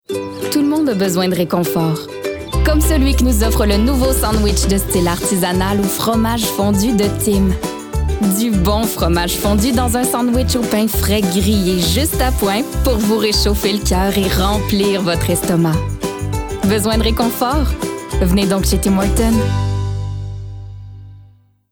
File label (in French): fr qc naturel annonceur promo douce jeune adulte medium; tim hortons